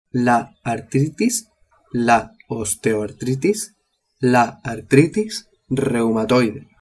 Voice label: "artritis" spanish

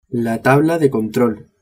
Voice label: "tabla de control", spanish

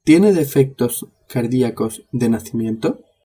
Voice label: "defectos cardiacos", spanish

